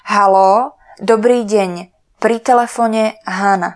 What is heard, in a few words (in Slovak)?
halo dobry den pri telefone, Slovak telephone phrases